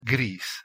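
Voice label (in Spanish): "gris" Spanish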